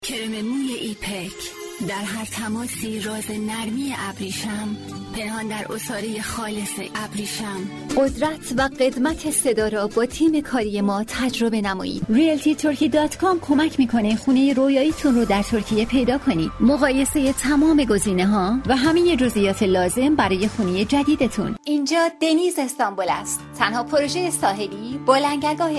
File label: farsi commercial